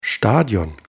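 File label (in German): "stadion", German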